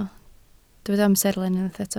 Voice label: "amserlen", Welsh